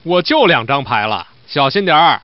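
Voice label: Man alarm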